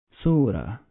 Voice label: "Surah", Arabic

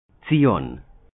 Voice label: "Zion", Hebrew

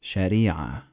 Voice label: "Sharia", Arabic

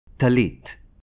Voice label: "Tallit", Hebrew

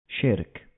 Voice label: "Shirk", Arabic

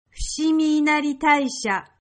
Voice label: "Fushimi", Japanese

